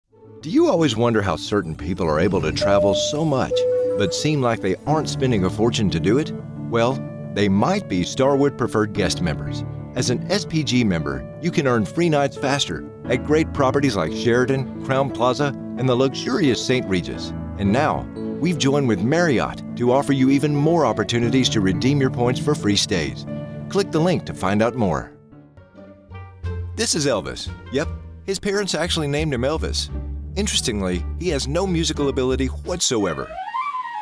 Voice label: Explainers